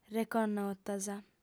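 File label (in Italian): "reconnotas", lingua sarda, fem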